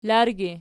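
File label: "largi" nominative, Archi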